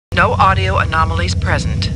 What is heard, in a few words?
Computer Voice; Star Trek; StarTrekLCAR